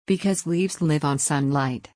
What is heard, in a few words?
fast